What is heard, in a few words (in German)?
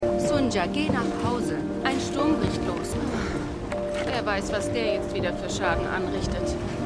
Getrocknete Tintenfische Verkaeuferin; Pachinko